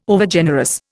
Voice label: "Overgenerous", Indian